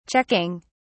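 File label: "checking", British English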